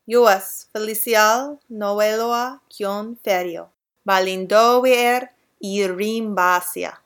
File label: witch fem; emerald-city